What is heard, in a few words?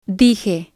"dije", Spanish